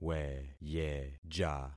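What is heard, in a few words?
"weyeja", concatenated syllables; Affixing experiment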